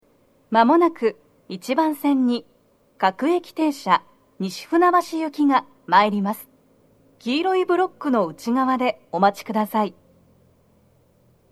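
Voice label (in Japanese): 接近放送, 女声, 放送は「各駅停車 西船橋行き」です。妙典で、快速 東葉勝田台行きの通過待ちを致します。 TOSHIBAでの収録です。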